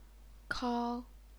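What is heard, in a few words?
"kao", Chinese, first tone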